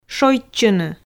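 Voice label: "шойччыны", Komi, female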